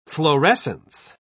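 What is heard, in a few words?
"florescence", British English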